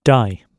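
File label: "dai", British, noun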